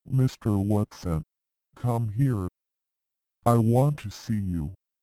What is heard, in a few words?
masculinized